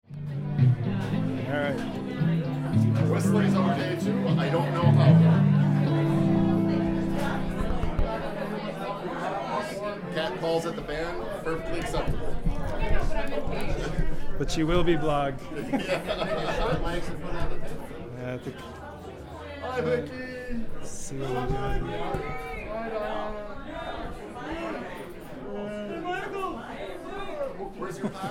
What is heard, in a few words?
Us Talking; May 2008 HOG Show